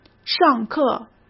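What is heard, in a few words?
"上课", Mandarin Chinese; chinese flashcards; hsk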